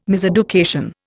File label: "miseducation", Indian